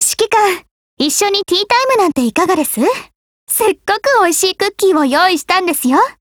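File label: DIALOGUE, Japanese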